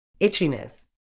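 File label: "itchiness", British English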